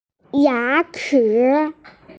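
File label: "yachi", Mandarin Chinese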